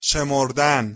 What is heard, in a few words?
"shemordan", Persian